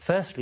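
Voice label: "firstly", Standard Southern British; fɜslɪ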